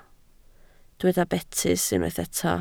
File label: "betys", Welsh